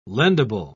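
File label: "lendable", British English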